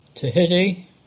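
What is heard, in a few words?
"Tehidy", English; place-names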